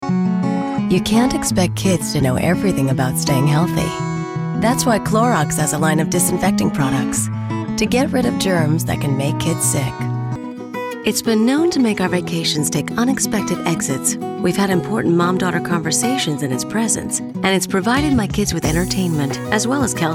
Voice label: commercial